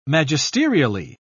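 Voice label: "magisterially", British English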